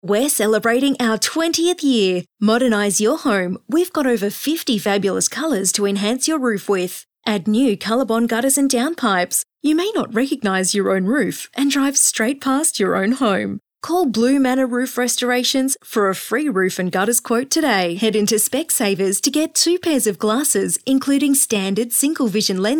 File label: Soft Sell